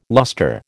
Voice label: "luster", American